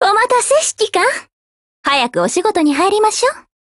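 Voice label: Japanese, Voice; GF Alarm; RMB93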